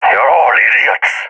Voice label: scared; balkan epic